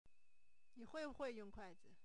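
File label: kuai4zi sentence, Chinese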